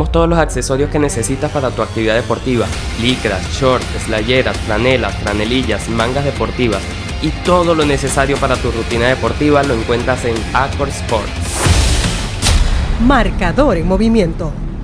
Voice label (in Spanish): publicidad; marcador en movimiento